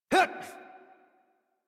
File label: Horse Mount Adult; Warframe; Duviri